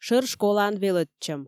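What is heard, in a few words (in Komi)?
"шӧр школаын велӧдчӧм", Komi, female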